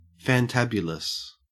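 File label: "fantabulous", Australian English; pronunciations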